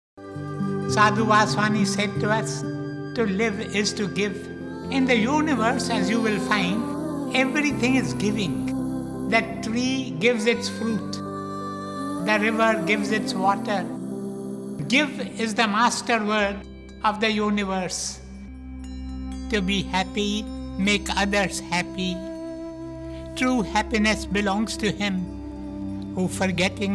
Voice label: Dadaji voice